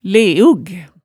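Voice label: "leug", Hemsedal dialect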